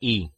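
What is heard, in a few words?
"i" Spanish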